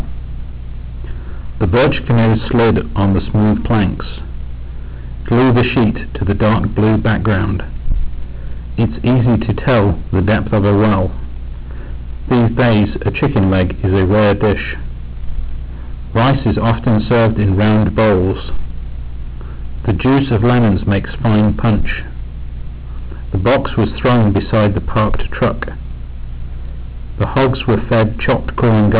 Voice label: amplitude clipping